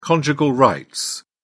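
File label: "conjugal rights", British English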